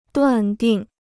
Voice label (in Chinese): "断定", Chinese